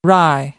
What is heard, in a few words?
"rye", us